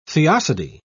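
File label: "theodicy", British English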